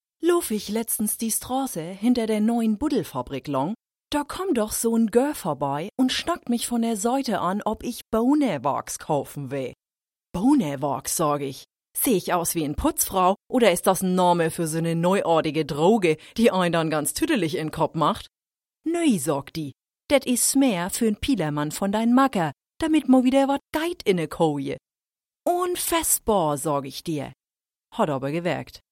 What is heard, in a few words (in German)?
Dialekt Hamburgisch